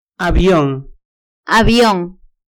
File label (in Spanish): "avion", Vocabulario